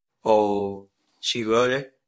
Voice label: Voice Acting, es